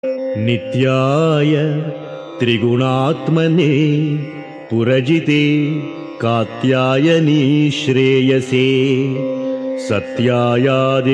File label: Sanskrit; Sri Adi Sankaracharyas Sivananda Lahari; Adi Shankaracharya; श्रीशिवानन्दलहरी ५६